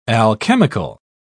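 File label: "alchemical", British English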